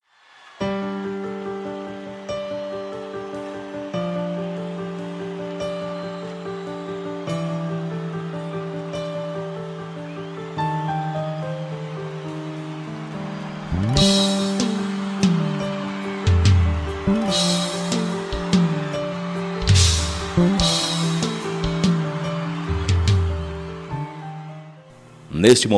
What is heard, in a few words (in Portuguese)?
BOA NOITE MASC, telemensagem